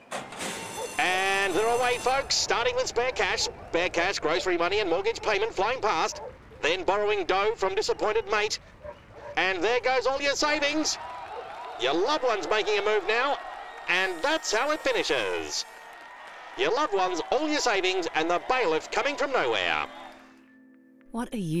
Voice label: gamble aware radio; racing, gaming and liquor; gamble aware 2023